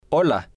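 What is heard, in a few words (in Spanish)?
"hola", spanish, male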